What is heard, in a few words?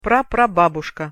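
"praprababuska", Russian pronunciation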